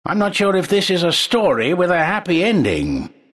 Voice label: announcer battle start; dlc trine

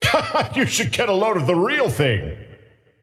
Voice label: scepter announce in game; dota

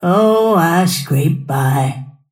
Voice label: lasthit pain; snapfire

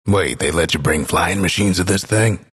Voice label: announcer pick gyrocopter follow; dlc bastion

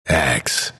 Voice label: announcer pick axe; dlc bastion

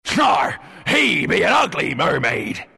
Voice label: announcer pick slardar, pirate; dlc workshop; capn

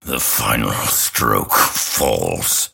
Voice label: lasthit pain; dota; grimstroke

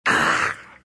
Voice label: pain; Viper

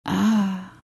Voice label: happy; queenofpain

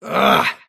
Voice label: anger; davion; dota; dragon knight

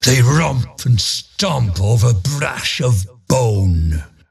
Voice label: announcer ownage; dlc diretide2020 killing spree

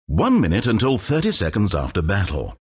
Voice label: announcer count battle; dlc stanleyparable

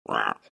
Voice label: anger; tinker

